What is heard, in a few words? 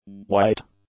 "white", english; adjectives